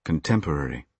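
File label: "contemporary", British English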